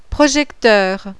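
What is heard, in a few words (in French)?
"PROJECTEUR", French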